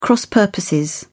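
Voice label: "cross-purposes", British English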